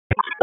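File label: Sheriff's Office Dispatch; WSO DISP 1; wake; p25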